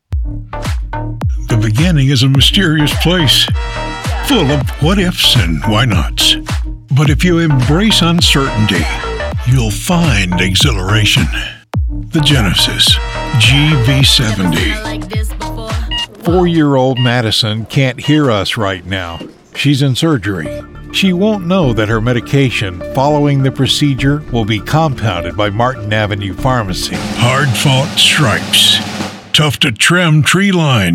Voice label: commercial